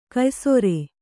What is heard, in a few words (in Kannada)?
"ಕೆಯ್ಸೇರ್", Kannada